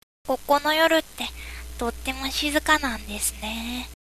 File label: boy